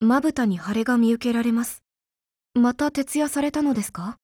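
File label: HELLO, Japanese; girlsfrontline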